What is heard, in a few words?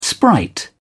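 "sprite", English, British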